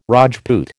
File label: "Rajput", American